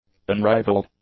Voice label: "unrivaled", english; adjectives